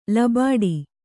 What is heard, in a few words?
"ಲಬಾಡಿ", Kannada; labāḍi; noun